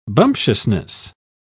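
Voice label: "bumptiousness", British English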